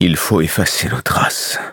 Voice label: defeat, fr; cookie run